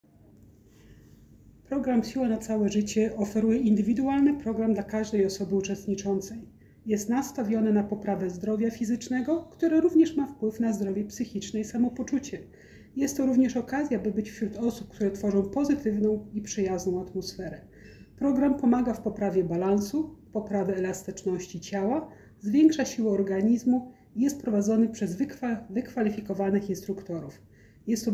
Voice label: polish polski